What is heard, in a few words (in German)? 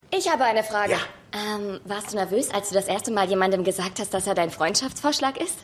Lockenfrau; God Friended Me